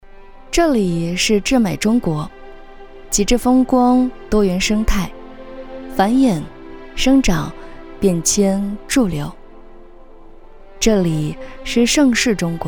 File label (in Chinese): 女 宣传